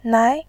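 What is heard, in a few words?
"nai", Mandarin, tone 2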